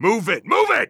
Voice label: radio lets go; Counter Strike Model Pack; CS:GO; phoenix coop